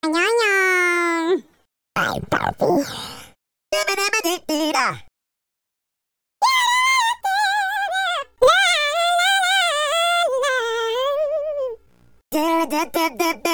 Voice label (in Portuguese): Voz Engraçada; Minions